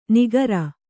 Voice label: "ನಿಗರ", Kannada